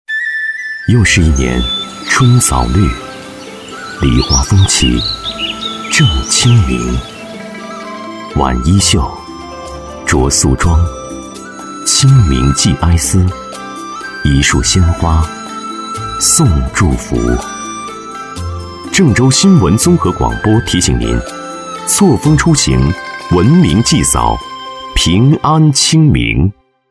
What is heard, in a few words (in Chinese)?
男 新闻广播片花 清明片花 高端柔和; 郑州新闻广播; 男B12-高端大气; 温和抒情